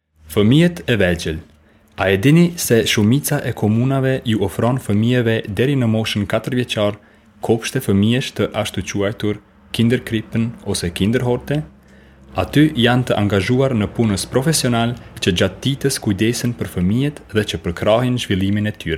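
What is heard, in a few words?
Albanian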